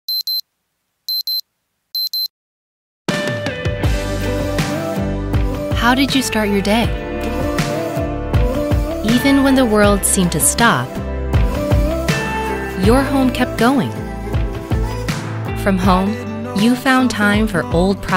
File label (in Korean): 영어 여 차분한톤; LG-Healthy-Home-Solutions